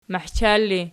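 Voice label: "mahchar" ergative, Archi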